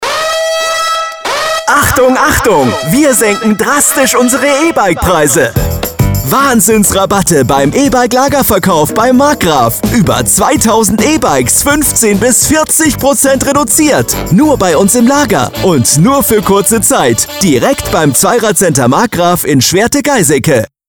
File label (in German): Funkspots Rabatt auf E-Bikes